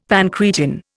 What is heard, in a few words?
"pancreatin", Indian; Dictionary